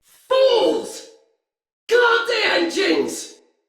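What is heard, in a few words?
Engine Destroy Banneret, Battle Barks, Male; Sinecure; Styn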